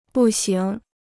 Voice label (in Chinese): "步行", Chinese